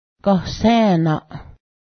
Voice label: name, Cayuga